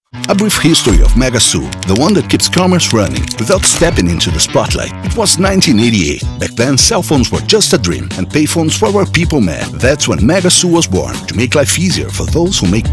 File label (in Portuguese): REPERT INGLES